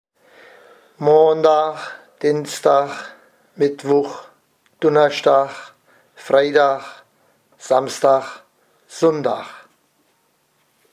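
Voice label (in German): Wochentage, O-Ton Hunsrück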